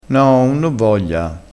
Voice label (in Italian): "chicco", crespinese